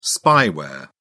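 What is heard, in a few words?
"spyware", English, British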